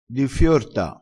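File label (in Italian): "diffiorta", dialettale crespinese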